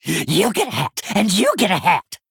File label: Male Goblin Play